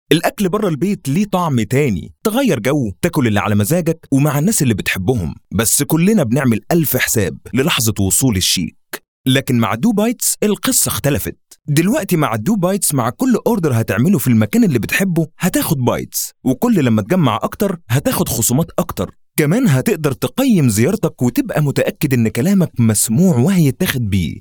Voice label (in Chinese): 阿拉伯语男 叙述干音